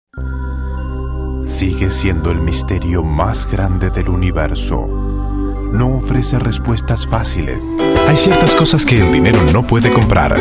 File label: Spanish Male